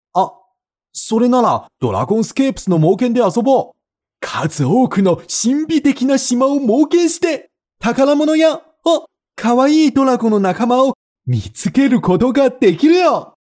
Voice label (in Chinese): 男 日语广告